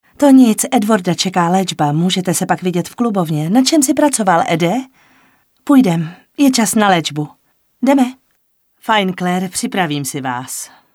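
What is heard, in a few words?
female Czech drama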